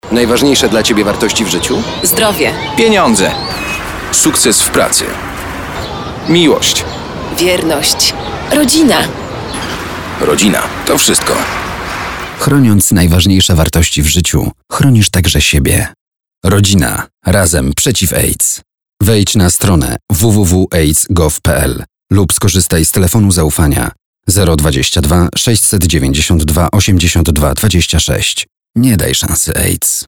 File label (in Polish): spot radio